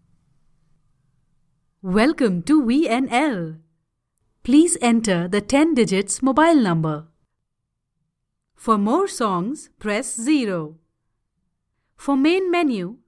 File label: english ivr; punjabi voice over talent